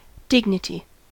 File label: "dignity", us